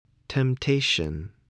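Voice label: "temptation", English word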